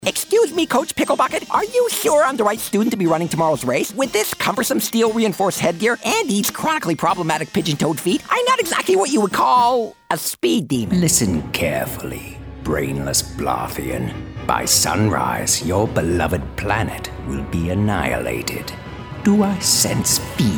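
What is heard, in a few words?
character male; TaDa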